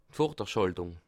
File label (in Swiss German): "vorderschaltung", alsace